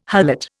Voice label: "harlot", Indian

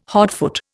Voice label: "hotfoot", Indian

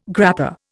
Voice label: "grappa", Indian

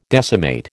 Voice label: "decimate", American

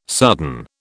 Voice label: "sudden", British

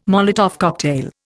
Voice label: "Molotov cocktail", Indian

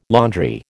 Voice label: "laundry", American

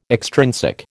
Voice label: "extrinsic", American

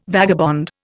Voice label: "vagabond", Indian

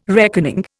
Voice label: "reckoning", Indian

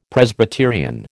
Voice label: "Presbyterian", American

